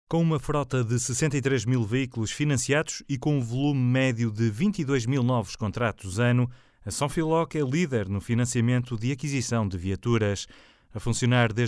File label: Portuguese Corporate